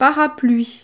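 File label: "parapluie", French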